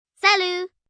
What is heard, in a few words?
French Hi, Greetings, 1 girl, 9 yrs., French; Talking; VoiceBox